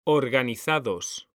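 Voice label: "organizados", Spanish, male; mekanta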